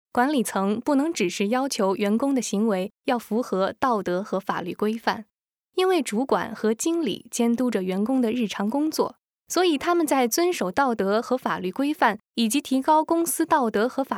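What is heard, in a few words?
chinese mandarin female narration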